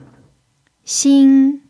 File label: "xin1", pinyin